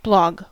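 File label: "blog", US English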